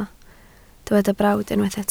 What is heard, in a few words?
"brawd", Welsh